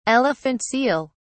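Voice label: "elephant seal", British English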